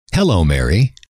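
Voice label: hello, male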